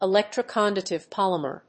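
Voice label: "ELECTRO CONDUCTIVE POLYMER", English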